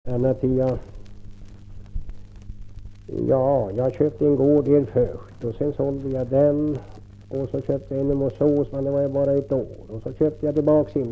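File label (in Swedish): Kräklinge Närke, dialekter; Folkminnen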